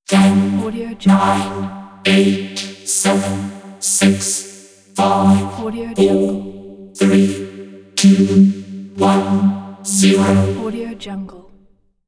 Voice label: female robot voice countdown